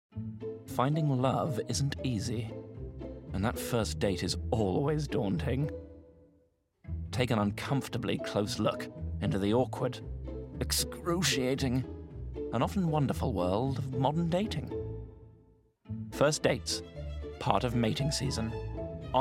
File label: Commercial; First Dates